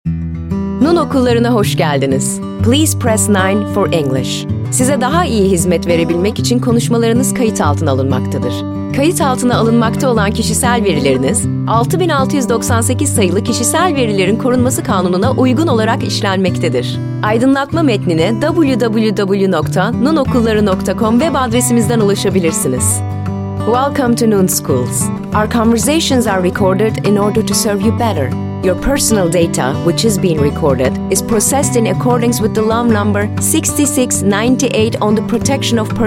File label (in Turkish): santral, santral seslendirme